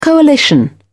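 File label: "coalition", British English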